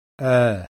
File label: open front rounded; IPA